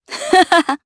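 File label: Vox Happy, Japanese; Nicky; kingsraid; voices heroes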